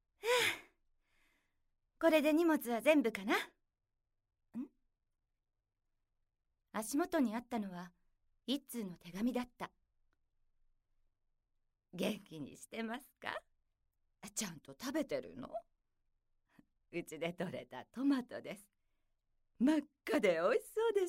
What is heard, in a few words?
narration